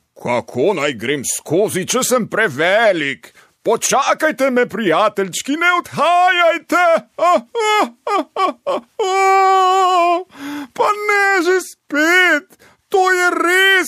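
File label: slovenian male character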